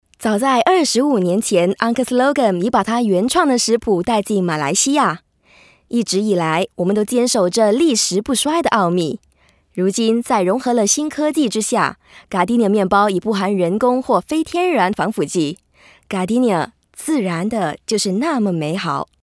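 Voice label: Mand ANCR, Mandarin announcer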